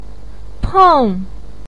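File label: "peng4", Mandarin pinyin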